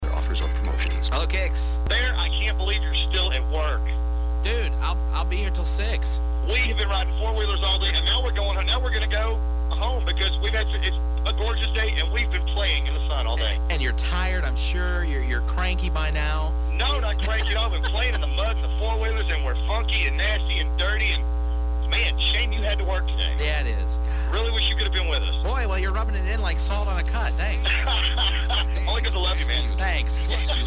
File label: phone call; PM Break